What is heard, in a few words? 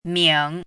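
"ming", pinyin, third tone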